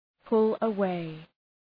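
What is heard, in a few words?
"pull away", English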